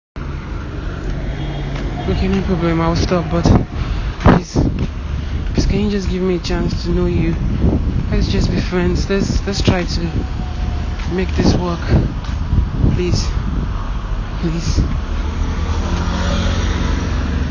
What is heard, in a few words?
audio Message